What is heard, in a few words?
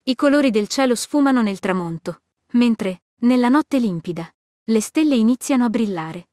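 Italian, Italy; iOS Sounds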